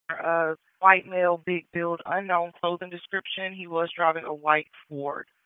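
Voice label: Sheriff's Office Dispatch; WSO DISP 1; wake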